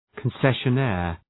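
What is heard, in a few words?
"concessionaire", English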